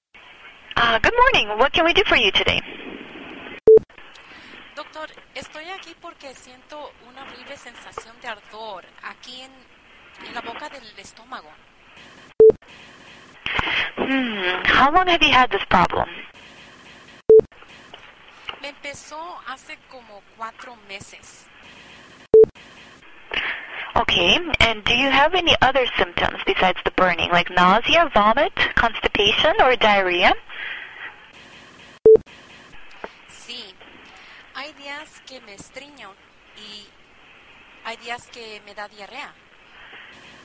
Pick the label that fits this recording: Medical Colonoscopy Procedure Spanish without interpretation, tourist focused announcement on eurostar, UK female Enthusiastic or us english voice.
Medical Colonoscopy Procedure Spanish without interpretation